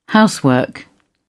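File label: "housework", British English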